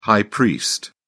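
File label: "high priest", English, British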